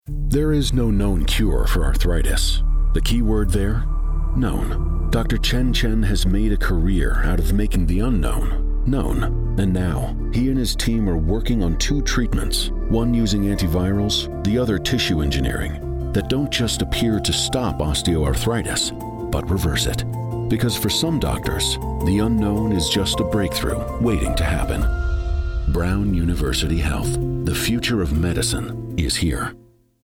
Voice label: English Radio; Osteoarthritis; Illumination Campaign